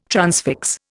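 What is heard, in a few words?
"transfix", Indian English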